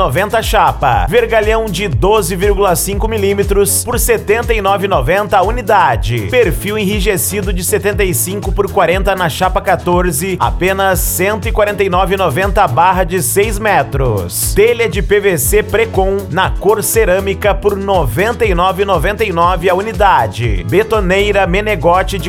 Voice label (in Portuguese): Locutor Outubro De Muitas Ofertas; Colunas Home Center; Brasilia DF